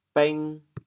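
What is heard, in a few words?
"bêng2", Teochew, chaoyang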